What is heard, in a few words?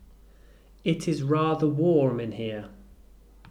"warm", English